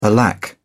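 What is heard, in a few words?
"alack", British English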